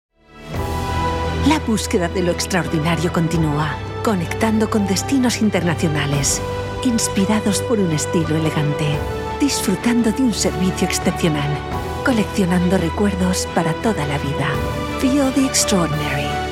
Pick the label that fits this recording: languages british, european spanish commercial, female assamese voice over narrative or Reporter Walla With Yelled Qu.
european spanish commercial